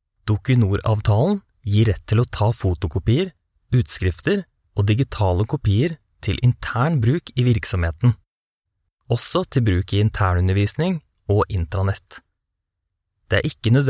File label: norwegian e-learning